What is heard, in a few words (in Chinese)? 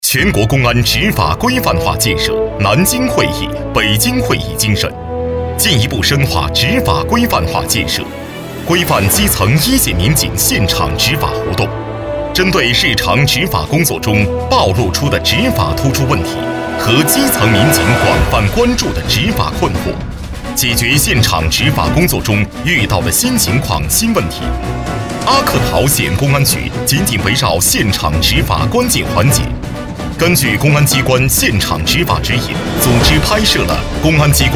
专题 政府 大气, 男国; 中国公安